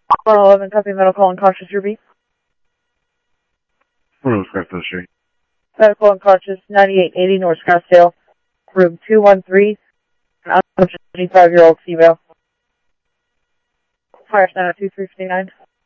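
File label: police radio dispatch, ScottsdalePolice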